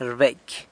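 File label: "rbecy", San Lucas Quiaviní Zapotec; puts on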